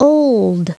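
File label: "old", spoken word; Princess and the Pea; SoftKey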